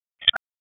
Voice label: Police Northwest District Tac 1